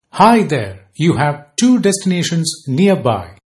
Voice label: two, male